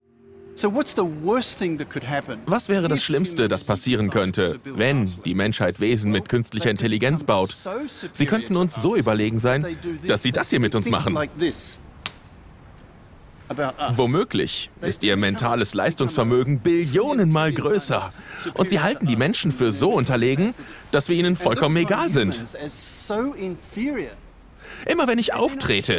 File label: voiceover; LOFT VOICES